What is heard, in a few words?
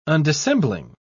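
"undissembling", British English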